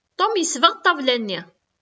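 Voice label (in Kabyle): Tom yesbeɣ ṭṭabla-nni., Kabyle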